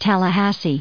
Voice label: "Tallahassee", en us

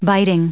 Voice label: "biting", en us

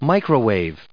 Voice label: "microwave", us

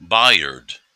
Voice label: "bayard", en us

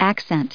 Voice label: "accent", us

